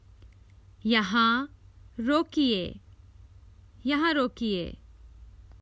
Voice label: Common Hindi Phrases for travel Please stop here to a taxi driver